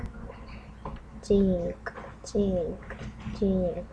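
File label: "jig", spoken word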